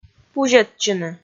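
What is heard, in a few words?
"пузьӧдчыны", Komi, female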